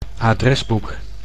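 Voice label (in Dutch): "adresboek", Dutch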